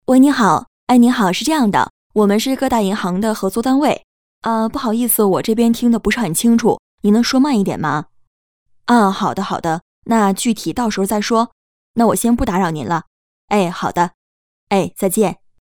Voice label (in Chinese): 女 AI 话术类